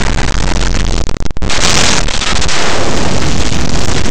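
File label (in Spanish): "brazo", Spanish, fricatives; laboratori fonetica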